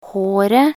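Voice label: "håret", Norwegian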